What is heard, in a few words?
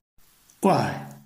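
"guai", Chinese, tone 4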